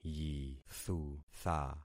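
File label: "yithutha", concatenated syllables; Affixing experiment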